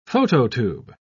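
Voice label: "phototube", British English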